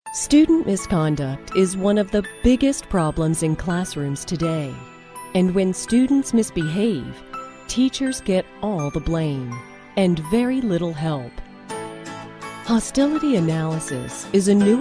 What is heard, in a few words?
eLearning